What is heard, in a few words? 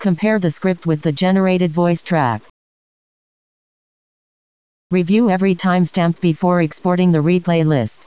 voiceover synthetic